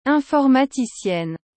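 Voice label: "informaticienne", French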